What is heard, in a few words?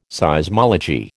"Seismology", American